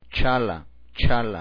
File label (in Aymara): "chhala", Aymara